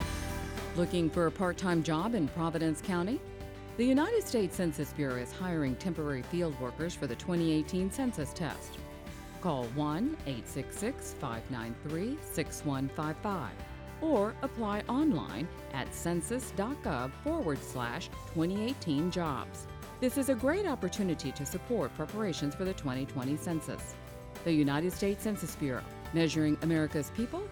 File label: recruiting english; providence; 2018 census test